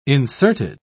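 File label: "inserted", British English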